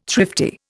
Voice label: "thrifty", Indian